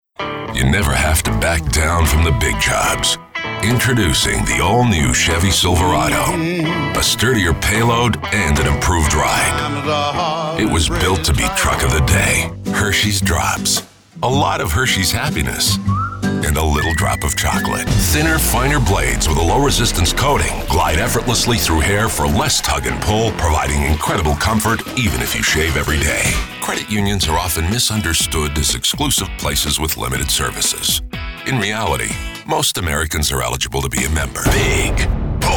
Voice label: commercial male